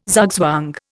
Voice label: "zugzwang", Indian